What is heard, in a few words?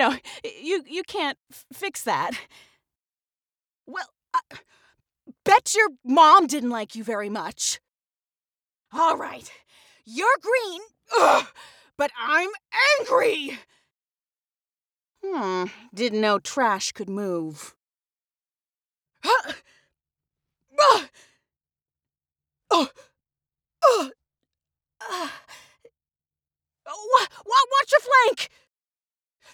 Recruit Female